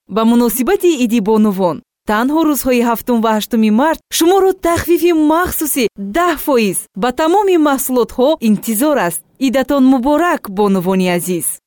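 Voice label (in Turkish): Tacikce Kadin, Tajik female voice; tacikce seslendirme; kadin sesler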